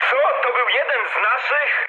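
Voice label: Polish player killed ally mood high; speech; War Thunder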